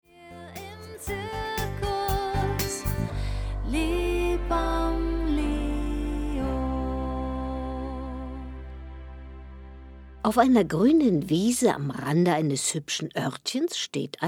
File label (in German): Kinderhörspiel